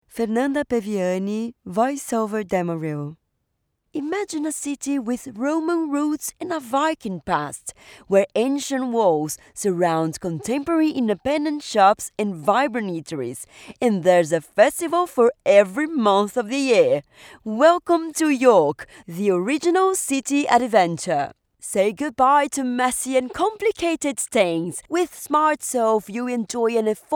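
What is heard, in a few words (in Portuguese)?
Documentário, Português - Brasileiro, Feminino, Voz Padrão - Grave; Voice Over Demo Reel; Documentário - Planeta Azul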